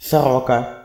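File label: magpie, Russian; animals; birds; tuxpaint-stamps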